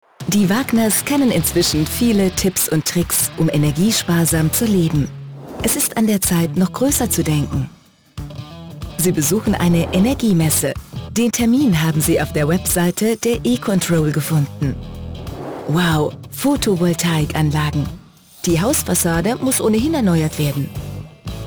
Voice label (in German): Erklarfilm; E-Control